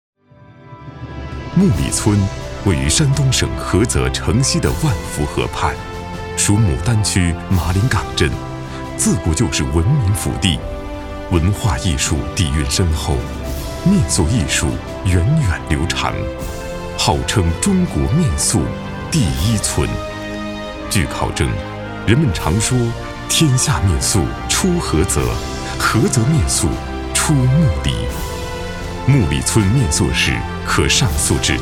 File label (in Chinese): 纪录片菏泽面塑